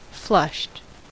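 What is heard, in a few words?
"flushed", US English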